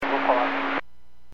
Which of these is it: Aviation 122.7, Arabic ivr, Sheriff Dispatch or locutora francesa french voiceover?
Aviation 122.7